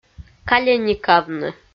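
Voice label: "каленикавны", Komi, female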